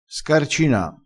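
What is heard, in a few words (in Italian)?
"scarcina", dialettale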